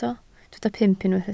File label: "pump", Welsh